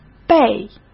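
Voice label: "倍", Mandarin Chinese; chinese flashcards; hsk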